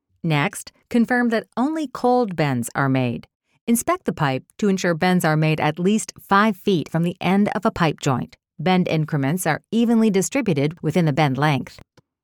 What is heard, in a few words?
Warm Approachable